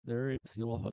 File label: reverse speech